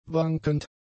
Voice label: "wankend", German; adjectives

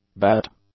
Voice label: "bat", English; nouns

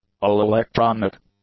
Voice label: "all-electronic", English; adjectives

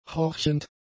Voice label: "horchend", German; adjectives

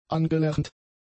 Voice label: "angelernt", German; adjectives

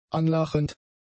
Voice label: "anlachend", German; adjectives

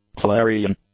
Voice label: "clarion", English; adjectives

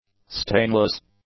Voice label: "stainless", English; adjectives

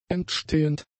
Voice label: "entstehend", German; adjectives

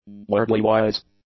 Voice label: "wordly-wise", english; adjectives

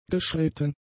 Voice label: "geschritten", German; adjectives

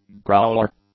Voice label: "growler", English; nouns

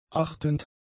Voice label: "achtend", german; adjectives

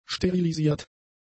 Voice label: "sterilisiert", German; adjectives

